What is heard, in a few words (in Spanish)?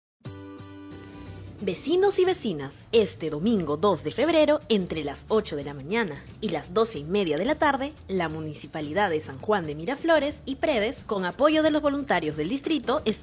cuña radial